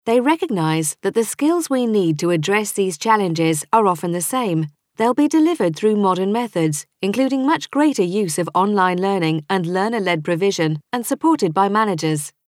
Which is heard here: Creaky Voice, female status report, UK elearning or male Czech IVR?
UK elearning